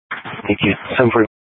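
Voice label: Sheriff, Parks, Sheriff radio scanner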